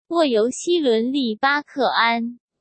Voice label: sent zh-CN